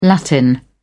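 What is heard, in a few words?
"latin", British English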